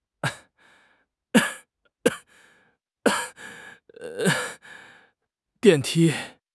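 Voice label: "dian4 ti1", Mandarin Chinese; HSK3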